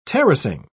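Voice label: "terracing", English, British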